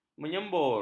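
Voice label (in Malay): "menyembur", Malay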